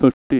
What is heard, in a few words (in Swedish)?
fyrtio, Swedish